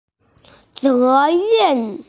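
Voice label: "zeren", Mandarin Chinese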